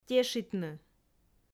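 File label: "тешитны", Komi, female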